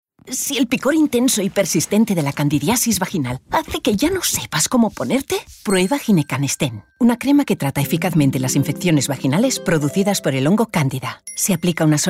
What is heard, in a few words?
female spanish gel comercial; ideasonora